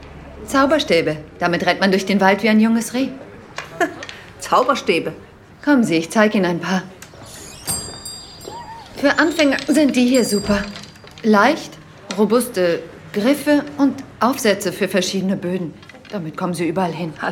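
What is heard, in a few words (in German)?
Schauspiel, Variabel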